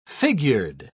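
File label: "figured", English, British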